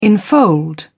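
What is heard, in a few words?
"enfold", British English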